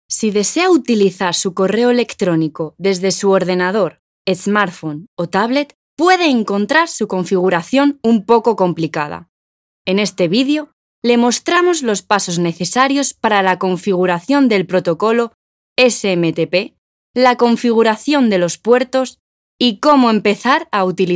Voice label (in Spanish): E-Learning